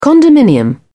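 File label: "condominium", British English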